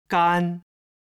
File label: "gan", Chinese